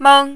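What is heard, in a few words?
"meng", Mandarin, first tone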